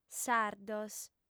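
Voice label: "sardos", female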